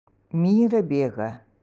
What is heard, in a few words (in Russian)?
Приложение февраль эвенкийский